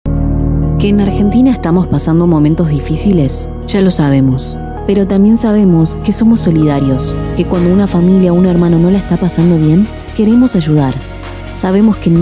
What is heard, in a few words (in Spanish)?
spot; Caritas